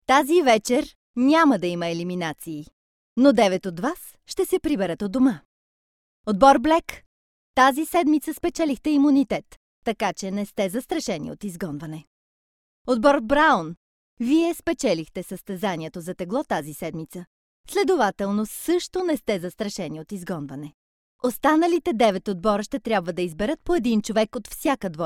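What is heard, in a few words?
bulgarian female narration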